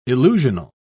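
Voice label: "illusional", British English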